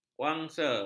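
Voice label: "wangsa", Malay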